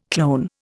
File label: "clone", Indian